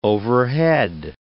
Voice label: "overhead", English